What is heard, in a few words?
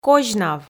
"козьнав", Komi